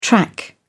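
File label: "track", British English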